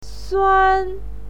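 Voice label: "suan", Mandarin Chinese, first tone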